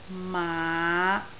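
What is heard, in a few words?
"maa5", Cantonese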